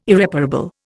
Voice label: "Irreparable", Indian